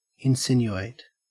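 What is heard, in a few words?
"insinuate", Australian English; pronunciations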